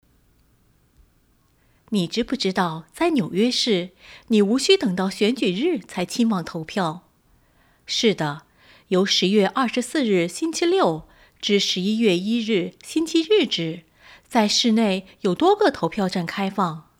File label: voting campaign vo